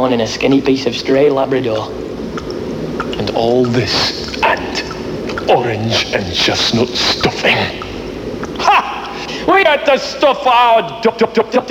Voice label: Pulls on a Yorkshire Accent to Pour Humbug on Christmas; Max Headroom; The Max Headroom Show